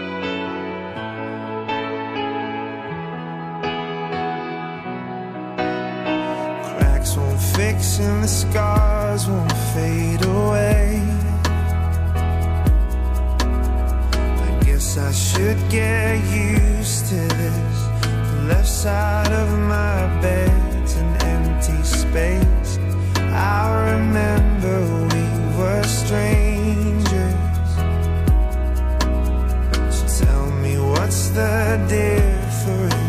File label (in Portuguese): lgbt masc, Dia dos Namorados para Namorado LGBT – Voz Masculina